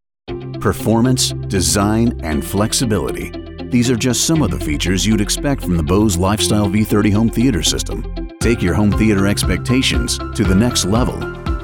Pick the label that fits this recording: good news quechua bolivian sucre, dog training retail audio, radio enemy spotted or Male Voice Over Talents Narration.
Male Voice Over Talents Narration